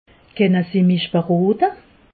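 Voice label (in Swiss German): "berote", Alsatian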